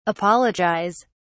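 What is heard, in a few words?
"apologise", British English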